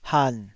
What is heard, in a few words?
"han3", taiwanese